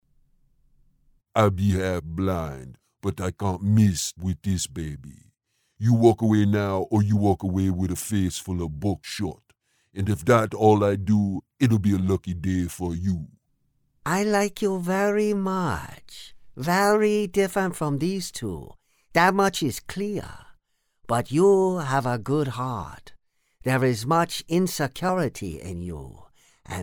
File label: Characters Men and Women